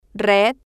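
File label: "red", female, Spanish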